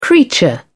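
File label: "creature", English, British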